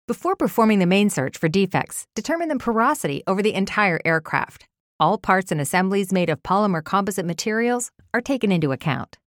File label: bright teacher